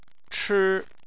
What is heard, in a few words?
"chi1", chinese